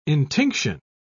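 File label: "intinction", British English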